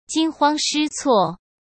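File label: "惊慌失措", Mandarin Chinese; chinese-flashcards; hsk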